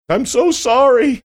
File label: saying im so sorry funny